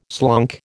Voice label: "slunk", American

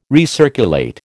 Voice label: "recirculate", American

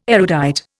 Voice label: "erudite", Indian

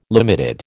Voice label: "limited", American

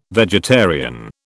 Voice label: "vegetarian", British

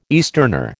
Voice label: "easterner", American

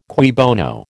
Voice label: "cui bono", American

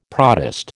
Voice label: "protist", American

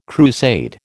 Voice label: "crusade", American

